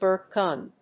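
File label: "burp gun", English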